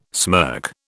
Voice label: "smirk", British

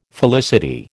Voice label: "felicity", American

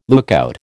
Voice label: "lookout", American

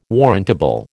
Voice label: "warrantable", American

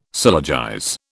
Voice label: "syllogize", British

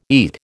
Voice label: "eat", American

